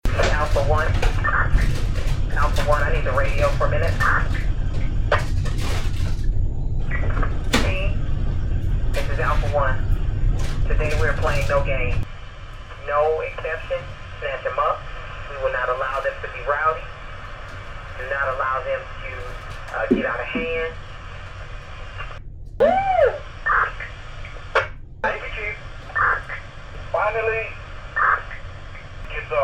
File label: Officer On Scanner; DPD; Amid Protests; "Git Sum!"; Following Two Chaotic Nights, Chief Hall Used Radio Comms To Encourage DPD Play “No Games”; Central Track